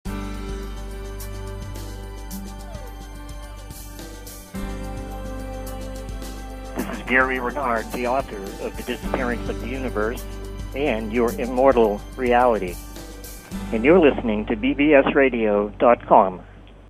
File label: bbs radio promo